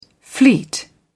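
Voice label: "fleet", British English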